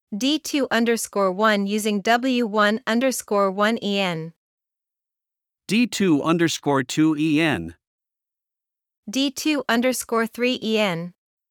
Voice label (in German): Dialog